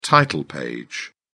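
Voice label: "title page", British English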